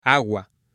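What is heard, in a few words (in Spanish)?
"agua", hombre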